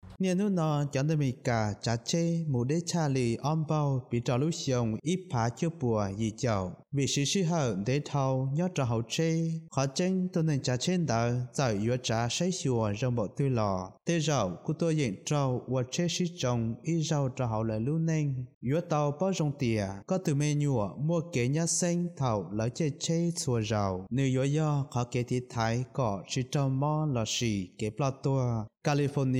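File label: Hmong, Instructions; GoLocalise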